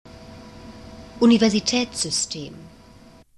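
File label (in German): "universitaetssystem", German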